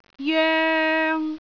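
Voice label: "joeng3", Cantonese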